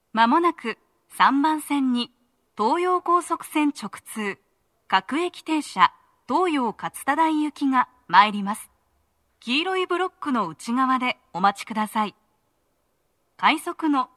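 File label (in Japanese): 接近放送, 女声, 放送は「各駅停車 東葉勝田台行き」です。快速 の通過待ちはありません。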